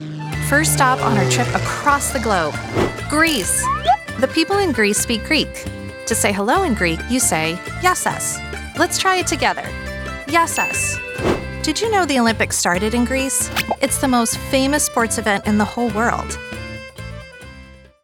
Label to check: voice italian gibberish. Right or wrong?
Wrong